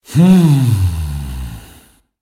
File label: hum, french; rammus